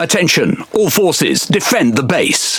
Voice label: Speech Quick Commands Ally Base, EN GB; World Of Warships